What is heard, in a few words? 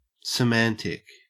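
"semantic", Australian English; pronunciations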